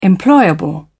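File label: "employable", British English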